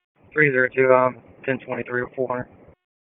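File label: Police Dispatch; Wake Forest Police Disp; wake p25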